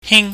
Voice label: "hing", chinese

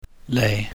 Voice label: "lei", chinese

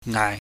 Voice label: "ngai", pingyam

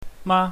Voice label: "ma", Chinese

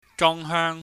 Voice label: "jongheung", Cantonese

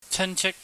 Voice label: "chanchik", Cantonese

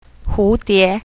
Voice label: "hu2 die2", mandarin

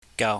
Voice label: "gau", chinese

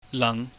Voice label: "nang", pingyam

